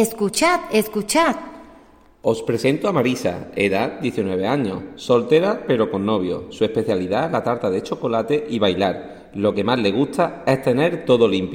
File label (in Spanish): Audio anuncios